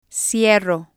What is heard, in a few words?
"cierro", spanish